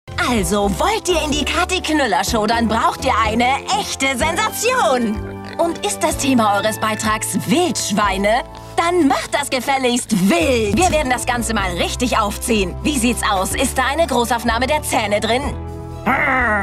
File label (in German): dynamisch Sprachprobe, German; Synchron - Trickfilm - ehrgeizig, dynamisch; Comedy, Lip-Sync (Synchron); voice; Feuerwehrmann Sam